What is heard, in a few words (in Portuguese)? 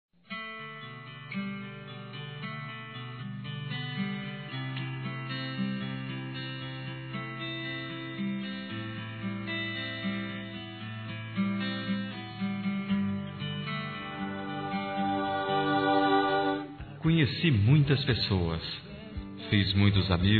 Inicio de Namoro Masculino; Telemensagens; Telemensagens 2017